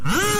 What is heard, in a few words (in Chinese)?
奥 G#5; 电棍